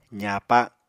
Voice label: "zaapa", Apatani